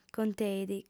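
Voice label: "conteit", female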